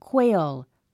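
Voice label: "quail", English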